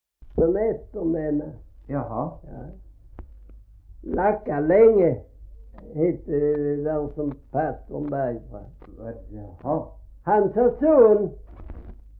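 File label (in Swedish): Gråmanstorp Skåne, dialekter; Folkminnen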